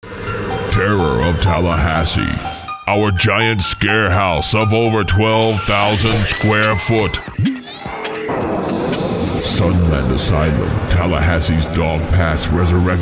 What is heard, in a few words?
radio